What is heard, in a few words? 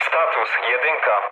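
Polish chat; War Thunder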